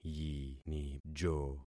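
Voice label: "yinijo", concatenated syllables; Affixing experiment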